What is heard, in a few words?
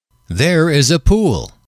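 "there is a pool", English, male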